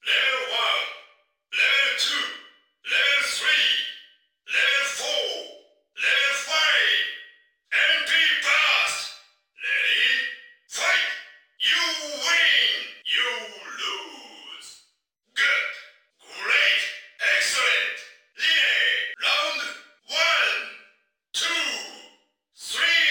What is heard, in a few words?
Japanese Announcer